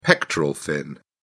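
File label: "pectoral fin", British English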